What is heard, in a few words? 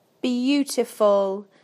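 "beautiful", British English; PictureDictionary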